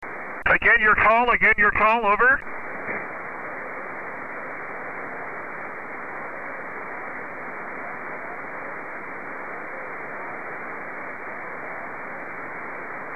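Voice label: Calling, 160m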